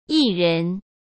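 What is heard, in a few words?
"艺人", Mandarin Chinese; chinese-flashcards; hsk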